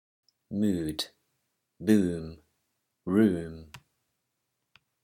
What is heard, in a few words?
english pronunciation rules